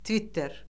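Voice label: "tvitter", Azerbaijani; Orfoqrafiya Lüğəti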